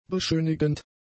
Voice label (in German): "beschönigend", German; adjectives